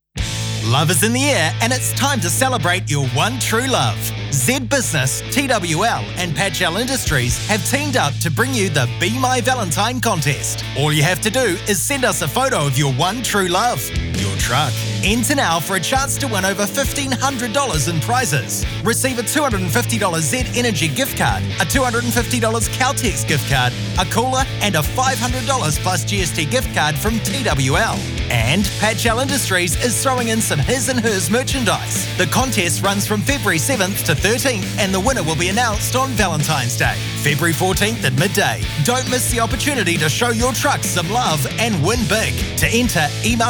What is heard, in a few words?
Audio Ad